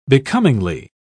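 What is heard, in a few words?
"becomingly", British English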